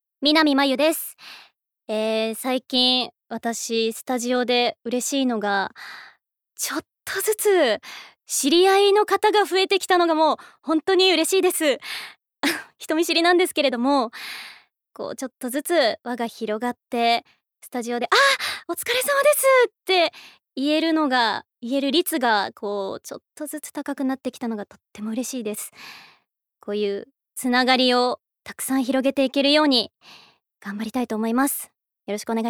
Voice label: female voice Freetalk